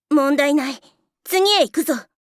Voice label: Voice victory